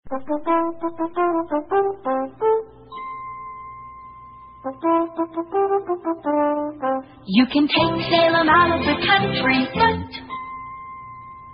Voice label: vintage commercials cigarettes; salem

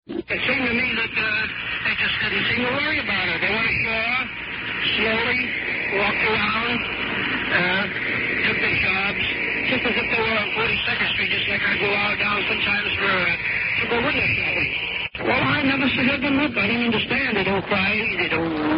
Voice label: radio news us soldier on the beach; 1944

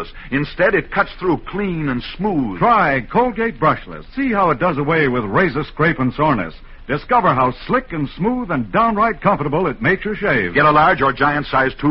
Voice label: vintage commercials shaving cream; colgate

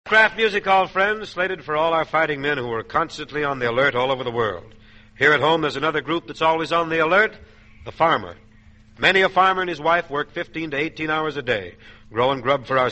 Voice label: commercials; bing crosby salute to farmers and 4 h clubs

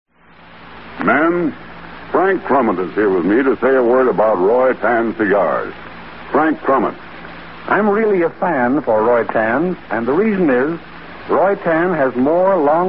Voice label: vintage commercials cigars; roitan

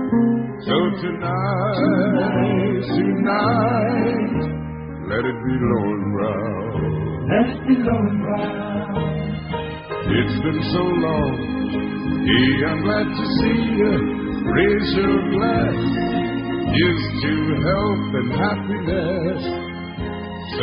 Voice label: vintage commercials beer; lowenbrau

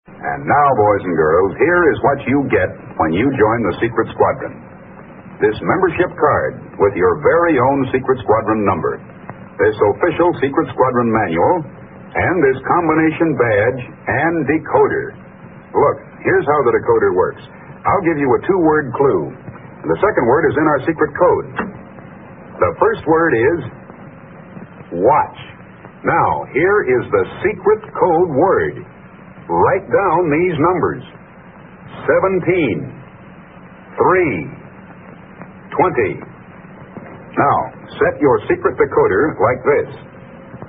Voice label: commercials; captain midnite ovaltine

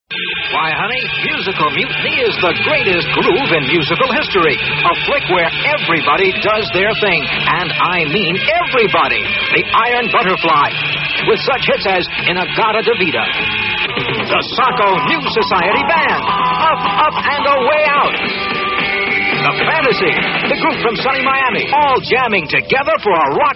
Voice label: movie ad, radio spots; musical mutiny; psychedelic promos and radio spots

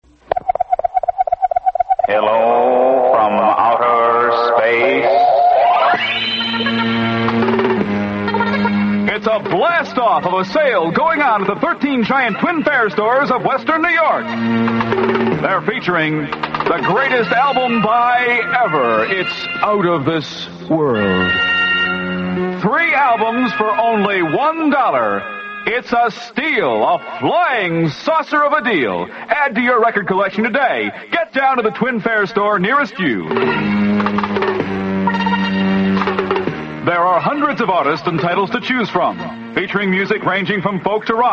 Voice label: commercial; wkbw 1973 halloween show; twin fair

